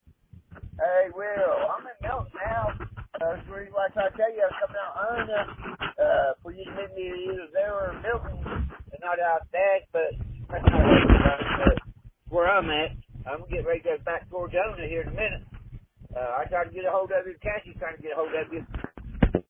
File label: Voicemail